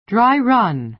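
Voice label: "dry run", American English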